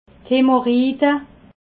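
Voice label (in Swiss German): "hemorride", Alsatian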